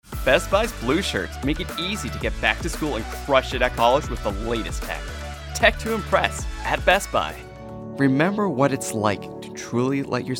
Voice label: commercial